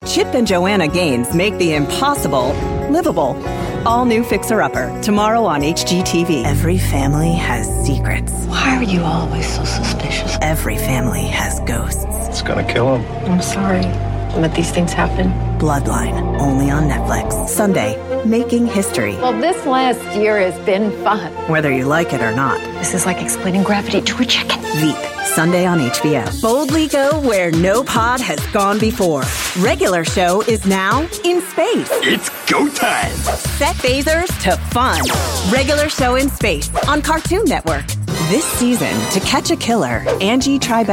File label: american continuity radio